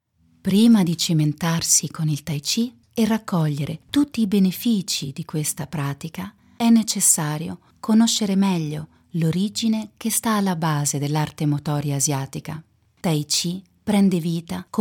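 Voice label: Italian E-Learning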